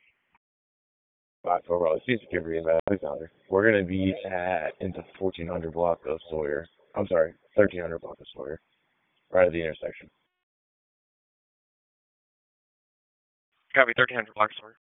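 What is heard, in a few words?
Police Dispatch, p25; Raleigh Police Southeast District Disp; wake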